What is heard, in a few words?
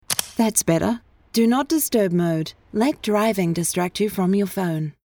radio ad